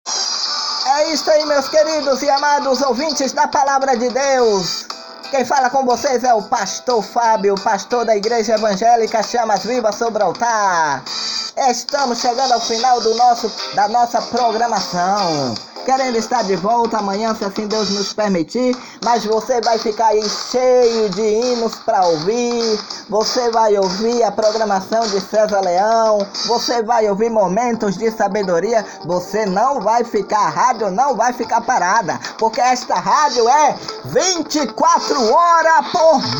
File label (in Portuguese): locucao; so hinos de fogo